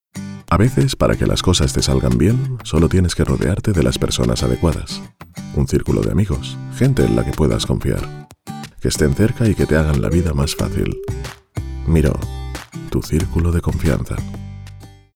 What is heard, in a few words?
male spanish tv comercial; ideasonora